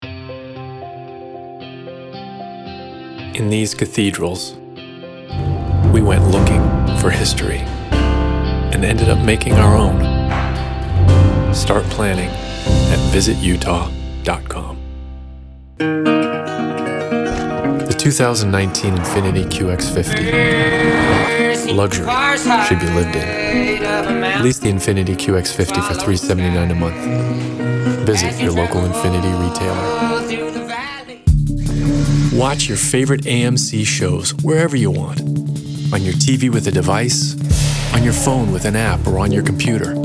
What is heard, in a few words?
VO COMMERCIAL; For Demo Purposes Only (not actual clients)